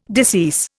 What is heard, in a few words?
"decease", Indian